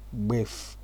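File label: "gbef", Nigerian Pidgin, male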